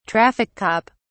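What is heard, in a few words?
"traffic cop", British English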